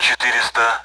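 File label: Speech Sound Russian Common AWACS Numbers; DCS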